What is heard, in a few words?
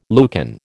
"Lucan", American